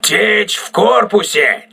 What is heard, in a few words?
voice message hull breach, crew ships russian